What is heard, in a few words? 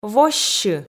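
"воссьы", Komi, female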